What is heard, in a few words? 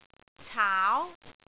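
"caau2", Cantonese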